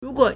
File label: "ru2 guo3", Chinese